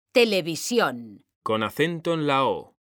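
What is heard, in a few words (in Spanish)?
"televisión", Spanish, female; mekanta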